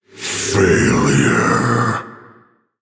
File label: Male Orc Oops